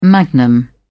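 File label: "magnum", British English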